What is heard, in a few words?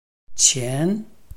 "qian2", Chinese